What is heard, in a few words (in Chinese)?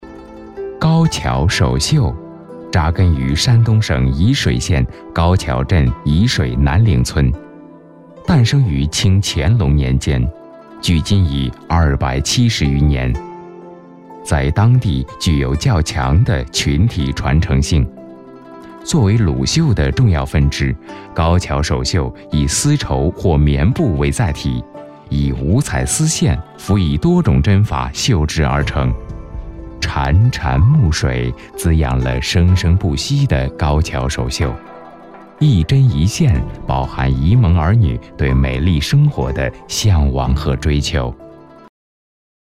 人文纪录